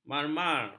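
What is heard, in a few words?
"marmar", Malay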